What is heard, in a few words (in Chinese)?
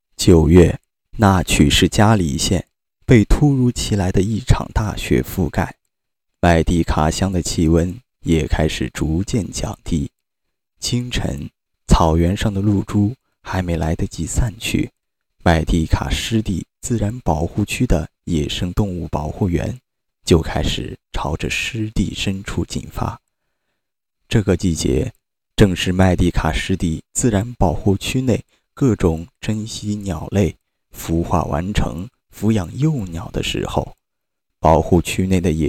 纪录片试音